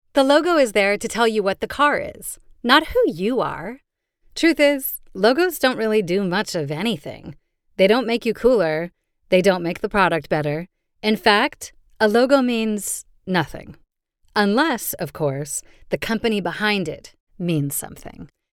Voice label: Car Commercial